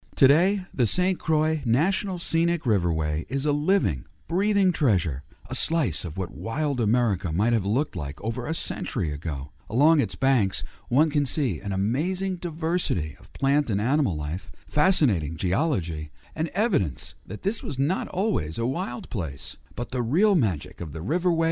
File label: Nature Narration, Real guy, Warm & Inviting